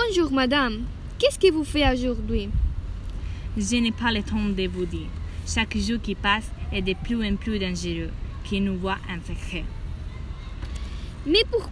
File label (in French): dialogue sur la poesie courtoise, podcast dialogue entre une dame et un chevalier